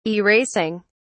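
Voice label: "erasing", British English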